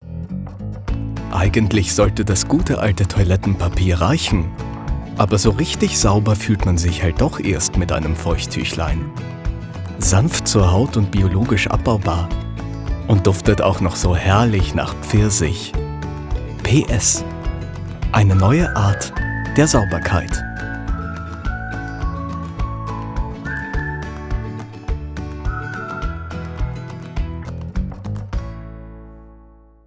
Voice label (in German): Sprechprobe WERBUNG